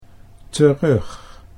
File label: "terug", Dutch